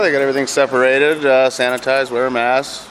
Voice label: Vox pop vote